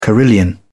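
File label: "carillon", British English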